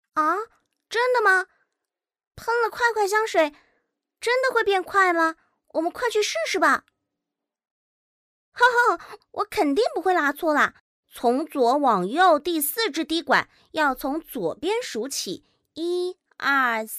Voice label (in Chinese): 女-角色【女童声 思维课程 7-9岁】; 思维课程跳跳